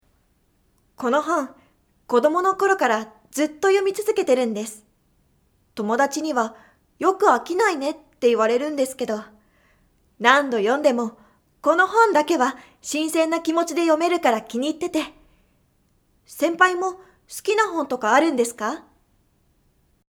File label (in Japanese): セリフ 女性